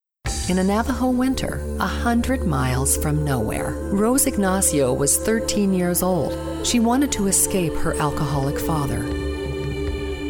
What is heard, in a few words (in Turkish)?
Amerikan Ingilizcesi Kadin; seslendirme